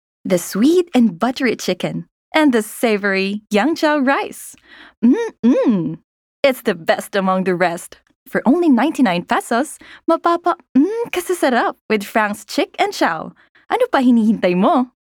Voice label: Taglish (Sultry, Inviting, Seducing)